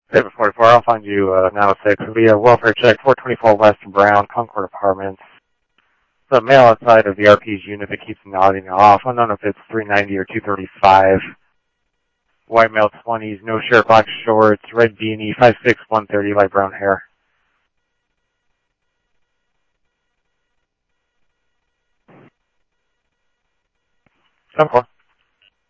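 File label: Mesa Police Department, scanner audio trunk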